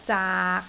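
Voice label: "zaak6", Cantonese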